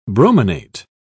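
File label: "brominate", British English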